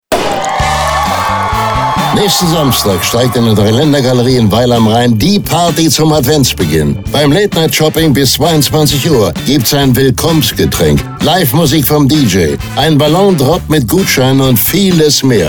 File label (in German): Late Night Shopping, radiowerbung; Dreilaendergalerie